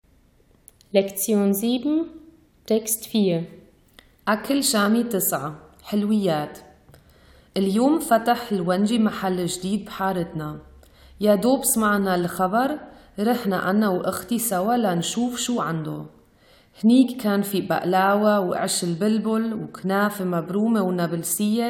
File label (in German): syrisch-arabischer dialekt; Syrisches Essen 9: Süßigkeiten; ʔakᵊl Šāmi 9: ḥəlwiyyāt